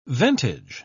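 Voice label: "ventage", British English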